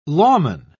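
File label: "lawman", British English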